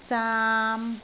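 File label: "zaam3", Cantonese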